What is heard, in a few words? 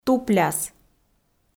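"тупляс", Komi, female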